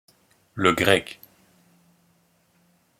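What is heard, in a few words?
"le grec", pronunciation, French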